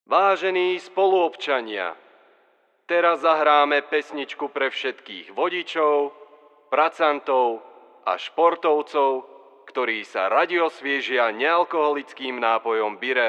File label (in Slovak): obecny rozhlas; birell